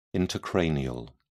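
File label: "intracranial", British English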